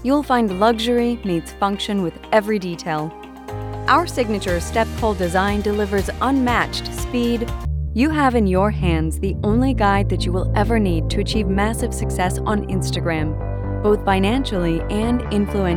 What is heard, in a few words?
Narration